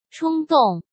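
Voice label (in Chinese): "冲动", Mandarin Chinese; hsk; chinese-flashcards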